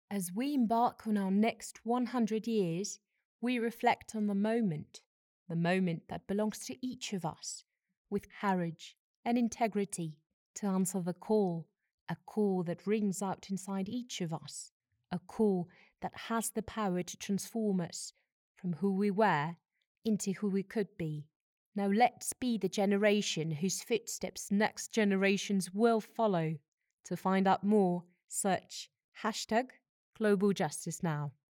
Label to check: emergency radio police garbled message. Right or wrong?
Wrong